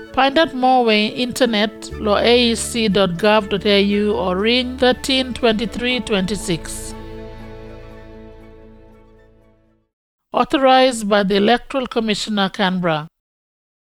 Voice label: Yumplatok, Completing the ballot paper